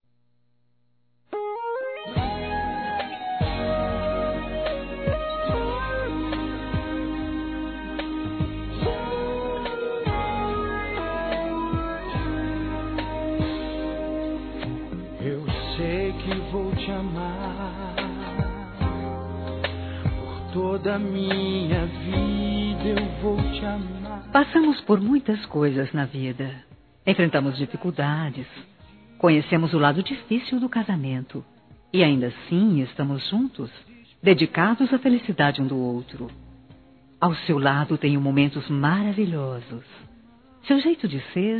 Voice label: Aniversário Esposo Feminino

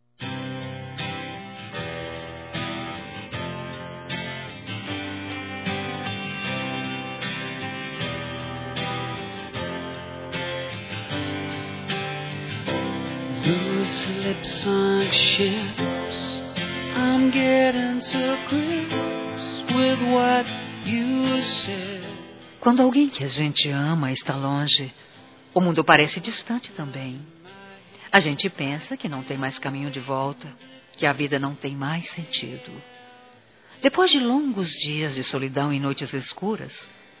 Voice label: Saudade Feminino; Telemensagens; Saudades

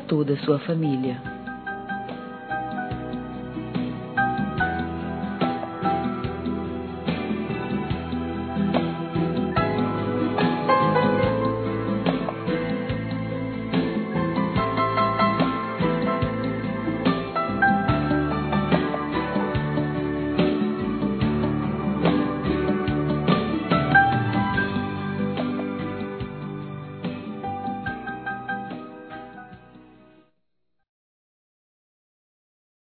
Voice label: Agradecimento Mensagem Ano Novo Feminino; Telemensagens; Natal e Ano novo

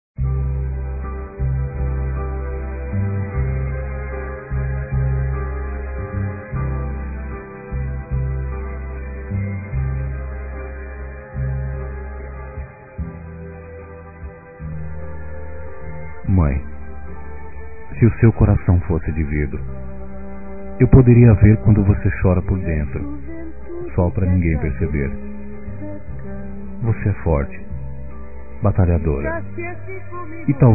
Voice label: Dia das Mães Simbolizando Criança Masculino; Telemensagens 2017

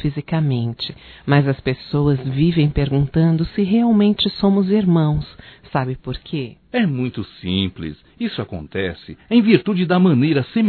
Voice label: Amigo quase irmao duas vozes, Só Voz; Telemensagens; AMIZADE; fem

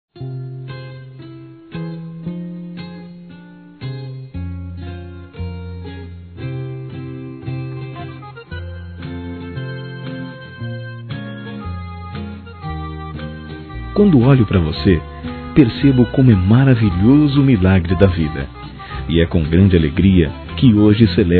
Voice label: Aniversário Neta Masculino; Aniversários; Aniv Familiar

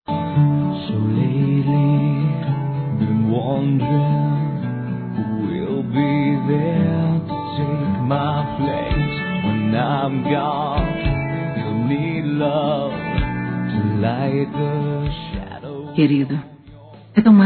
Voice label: Resposta Convite Erótico Feminino; Telemensagens; Sensuais

